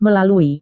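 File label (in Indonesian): "melalui", Indonesian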